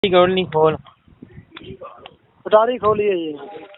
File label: Call recording